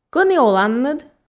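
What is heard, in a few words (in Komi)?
Komi, кӧні оланныд, female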